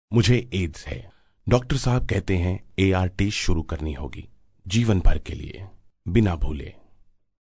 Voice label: Hindi Male